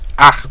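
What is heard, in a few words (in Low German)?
"acht", Plattdüütsch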